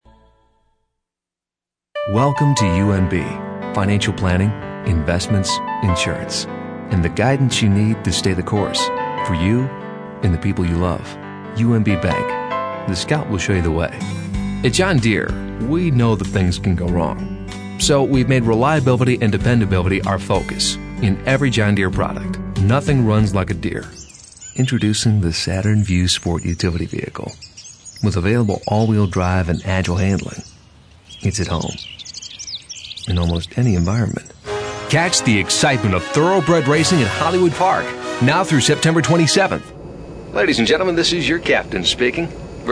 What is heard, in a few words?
commercial